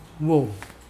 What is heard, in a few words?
dog bark, Mokilese